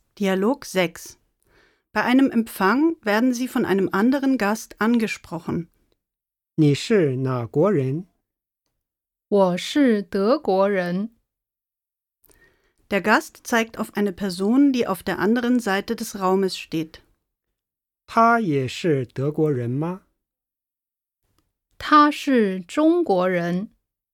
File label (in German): Dialog